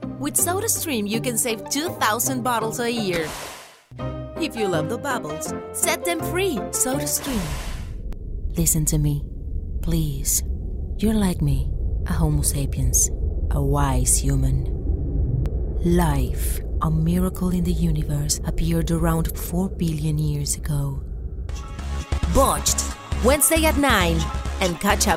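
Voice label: Spanish, English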